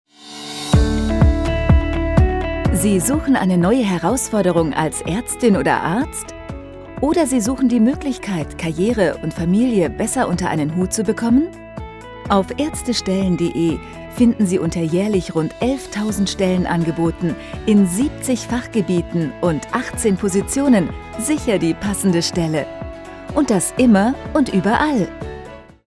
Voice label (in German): Dynamisch